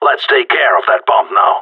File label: hurry bomb, radio; GSG9